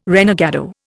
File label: "renegado", Indian